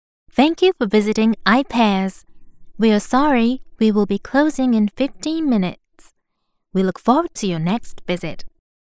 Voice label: en normal